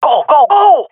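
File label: request move, swat female; vo; Counter Strike Model Pack; CS:GO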